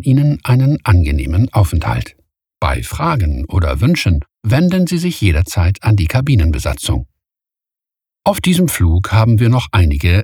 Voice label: male german airlines in flight announcements; germania; devine voices

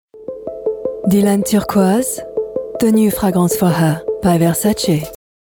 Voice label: female English with French accent commercial; voices; versace

